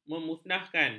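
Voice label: "memunahkan", Malay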